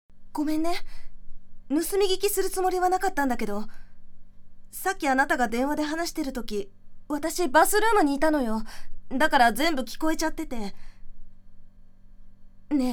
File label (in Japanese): 若い女性